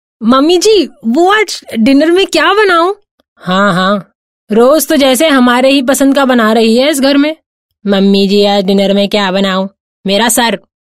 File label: Characters young and old Hindi, female voice